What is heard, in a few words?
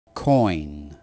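"coin", English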